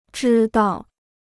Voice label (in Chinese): "知道", Chinese